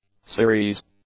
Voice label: "series", English; adjectives